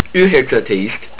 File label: nineteen, estonian